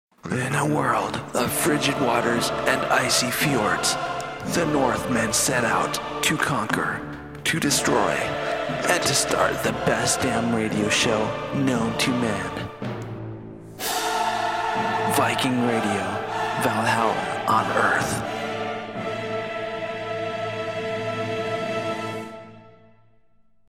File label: promo; viking